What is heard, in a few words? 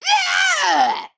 Male Imp Death